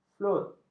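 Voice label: "flut", Malay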